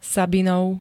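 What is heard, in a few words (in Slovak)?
"Sabinov", Slovak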